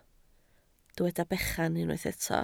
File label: "bechan", Welsh